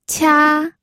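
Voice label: "qia", Mandarin Chinese, first tone; pinyin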